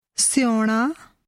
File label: to forget, punjabi; English: to forget